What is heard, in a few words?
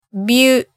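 "byu", Japanese syllable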